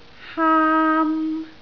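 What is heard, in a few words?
"haam1", Cantonese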